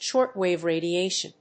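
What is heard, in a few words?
"short wave radiation", English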